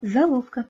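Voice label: "zolovka", Russian pronunciation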